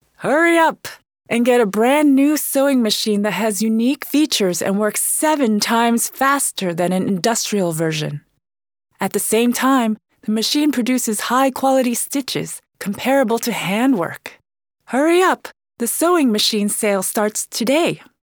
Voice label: ENG female Active